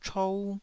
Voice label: "chou1", Taiwanese

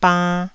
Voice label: "bann1", taiwanese syllables; 台語音節發音檔案

